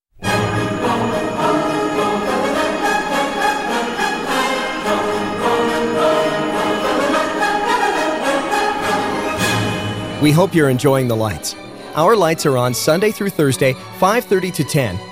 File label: voice over